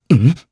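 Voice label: Vox, voices, Japanese; Theo; kingsraid audio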